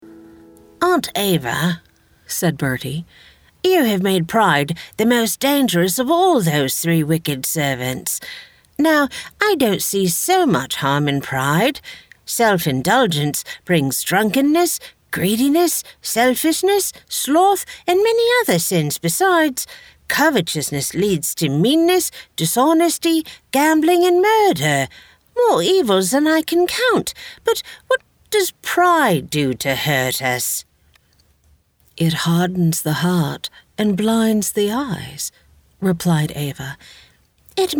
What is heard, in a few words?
Audiobook Sample; Palace of Deceit